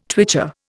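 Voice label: "twitcher", Indian English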